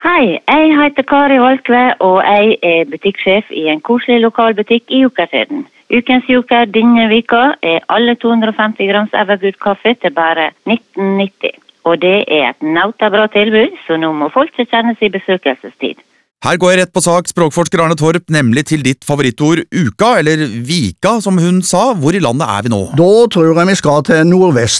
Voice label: radiospots